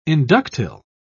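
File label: "inductile", British English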